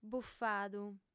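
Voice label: "bufadu", lingua sarda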